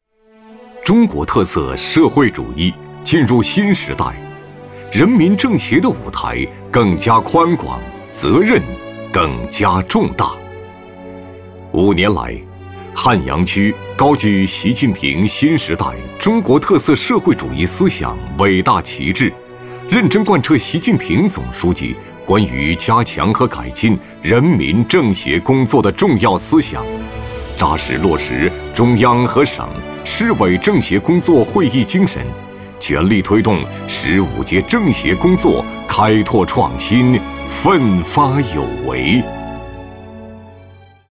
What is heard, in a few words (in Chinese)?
男 专题片 党建政协工作